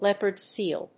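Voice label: "leopard seal", English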